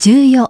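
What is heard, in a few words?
"ten four", English; iOS 4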